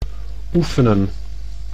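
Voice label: "oefenen", Dutch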